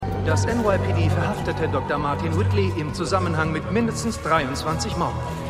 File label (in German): Nachrichtensprecher; Prodigal Son